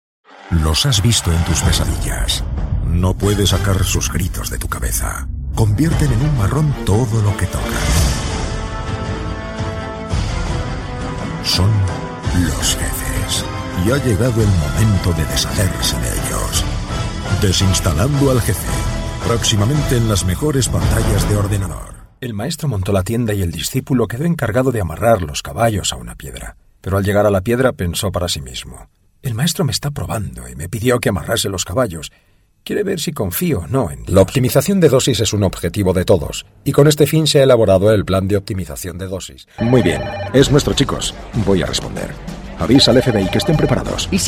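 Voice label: European Spanish, General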